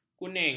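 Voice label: "kuning", Malay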